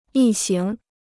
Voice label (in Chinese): "一行", Chinese